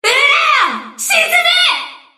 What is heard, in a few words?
Enemy Voice Damaged Attack; Non-Treaty Cruiser Water Princess